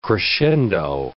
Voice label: "crescendo", English